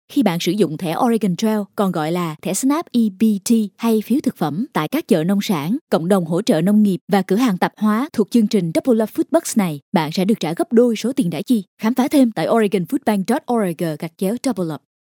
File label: locutora vietnam voiceover; locutora de Vietnam; locutores vietnamitas